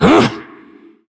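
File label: attack, Chinese; Taric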